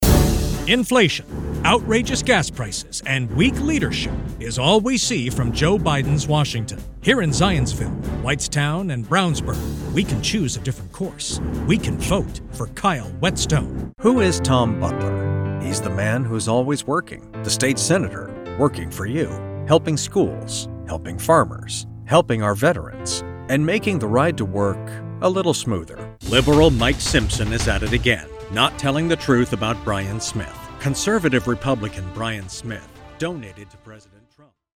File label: english american political ads